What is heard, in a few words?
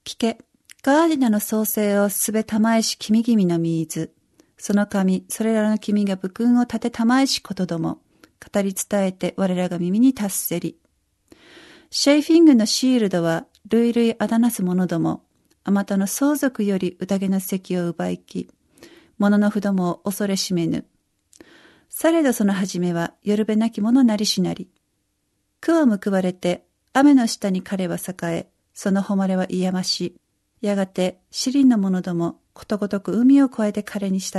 japanese